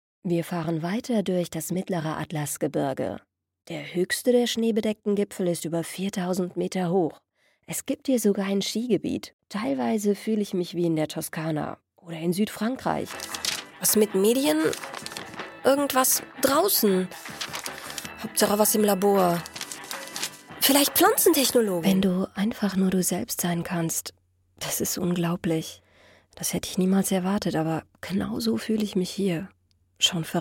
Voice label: young voice natural to commercial